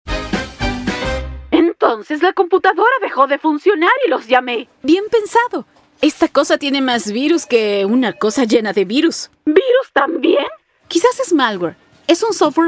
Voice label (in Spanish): e learning